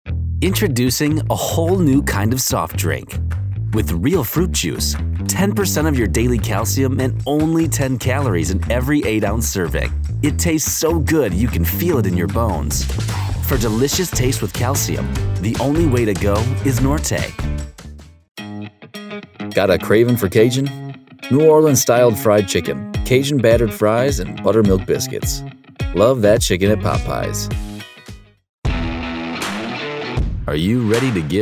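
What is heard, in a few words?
us commercial, American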